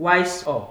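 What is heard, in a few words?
"wise up", Nigerian Pidgin, male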